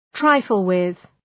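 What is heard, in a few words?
"trifle with", English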